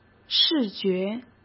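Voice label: "视觉", Mandarin Chinese; chinese-flashcards; hsk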